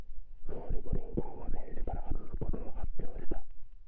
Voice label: whisper; NAM microphone; Japanese